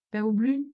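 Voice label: "peu blu", Occitan Gascon; Prêts pour l’Òc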